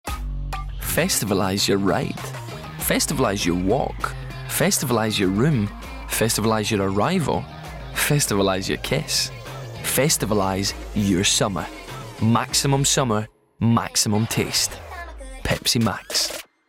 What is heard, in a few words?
Commercial; Pepsi